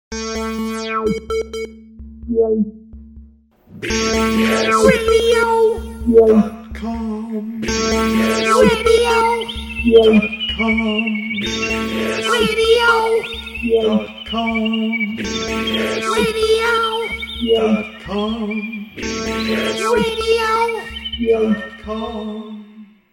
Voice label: bbs radio promo; bbsradio dot com